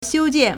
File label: "xiu1jian4", Chinese